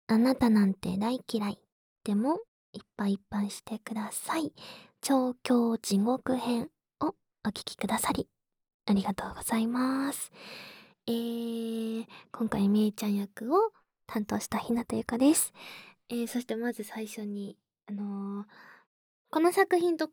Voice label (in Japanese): フリートーク♪